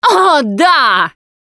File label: taunt, russian, Survivor Voice Producer